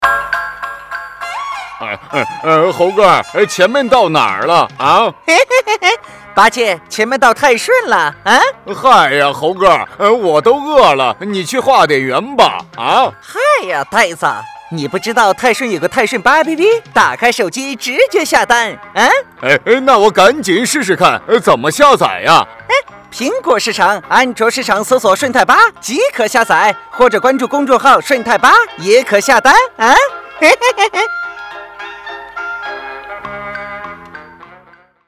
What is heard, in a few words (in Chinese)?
男 八戒悟空泰顺吧APP